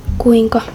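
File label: Finnish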